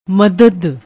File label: "madad", Punjabi; ਮਦਦ